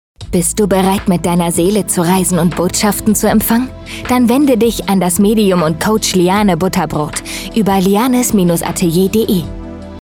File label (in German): Funkspot